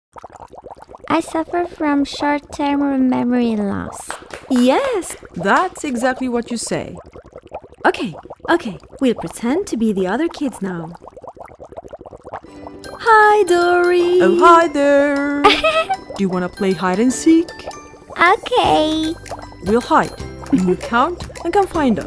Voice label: Voice Over; Finding Dory